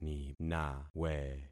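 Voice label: "ninawe", concatenated syllables; Affixing experiment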